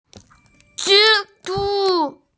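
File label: "zhi zhu", Mandarin Chinese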